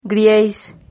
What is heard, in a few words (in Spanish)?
"grieis", Silabas